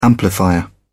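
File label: "amplifier", British English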